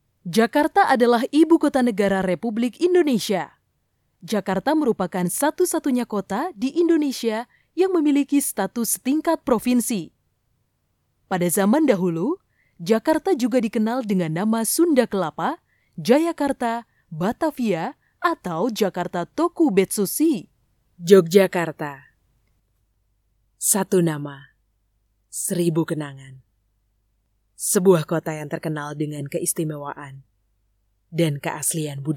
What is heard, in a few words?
MIX VOICE